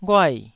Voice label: "ghuai4", Taiwanese syllable

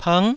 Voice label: "png9", Taiwanese

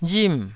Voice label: "rim4", taiwanese

